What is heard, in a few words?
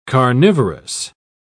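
"carnivorous", British English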